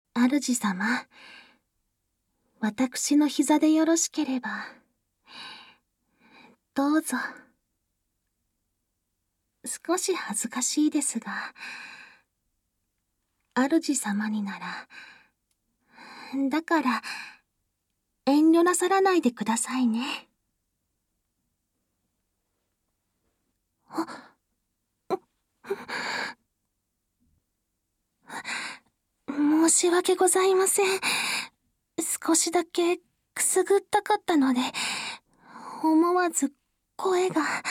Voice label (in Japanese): 甘々和服メイドさん ボイスのみ, ASMR